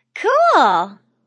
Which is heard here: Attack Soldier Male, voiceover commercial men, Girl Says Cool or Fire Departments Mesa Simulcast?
Girl Says Cool